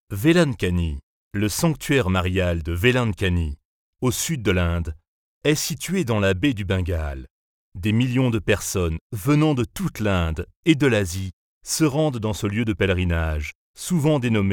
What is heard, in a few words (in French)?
voix grave Audioguide; Vailankanni